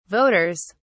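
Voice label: "voters", British English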